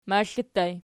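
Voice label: "maxli" ergative plural, Archi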